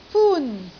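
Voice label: "fun1", Cantonese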